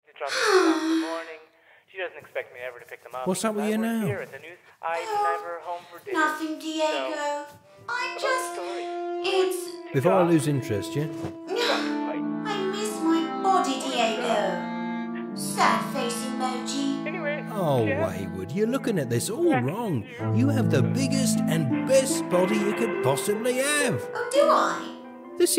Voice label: AI Comedy RP UK High Funny Whimsical; CICADA3000; accents characters age voice samples

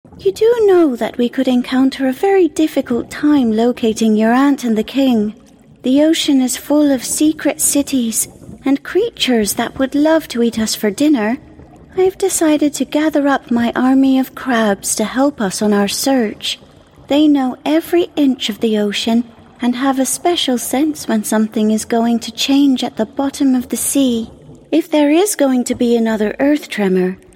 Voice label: Irish Young Adult F Impression; PODCAST; Corkuparipple Creek; Princess Of The Pearl; Luna Lovegood; accents characters age voice samples

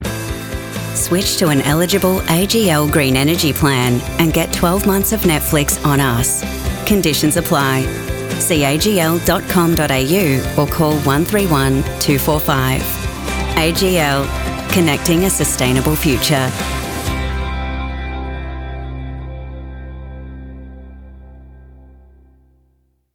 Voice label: voiceover Commercial Australian; accents characters age voice samples; AGL

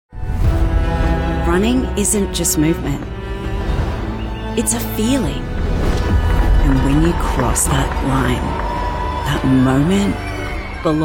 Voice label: Marathon, australian voice over; accents characters age voice samples; Asics; Sydney Marathon

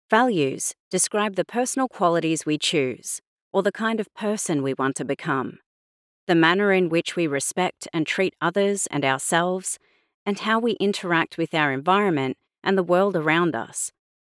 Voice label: Audiobook Australian Non Fiction; The Secrets Of Women Who Never Give Up; australian voice over; audiobook narration

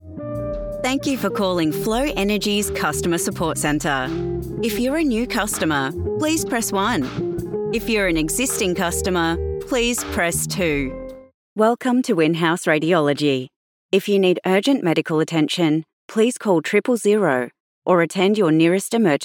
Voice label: VO IVR On Hold Australian

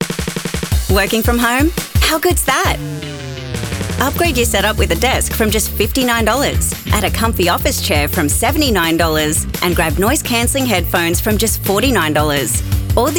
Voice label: voiceover Commercial Australian; accents characters age voice samples; BIGW

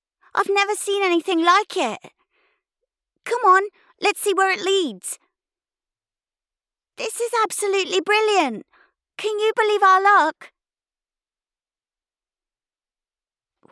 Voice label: CHARACTER Boy UK M Child; accents characters age voice samples

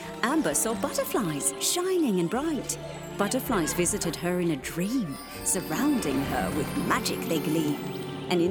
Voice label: Commercial UK Storyteller Fairy Godmother; accents characters age voice samples

